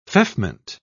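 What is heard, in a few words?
"feoffment", English, British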